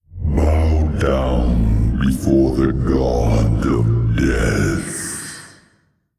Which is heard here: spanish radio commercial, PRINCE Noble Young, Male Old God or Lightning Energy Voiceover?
Male Old God